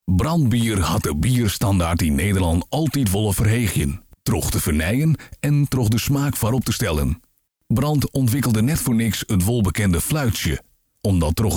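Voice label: Frisian accent, male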